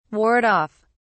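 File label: "ward off", English, British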